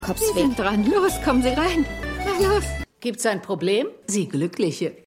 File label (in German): Pilgerbueroempfangsdame